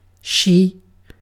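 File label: "she", British English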